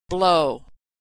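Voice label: "blow", American English; verb; Consonant Sound Pair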